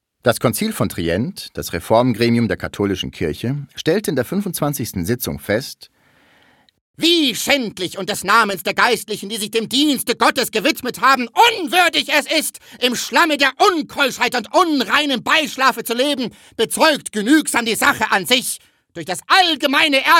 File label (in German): Audioguide Kloster muri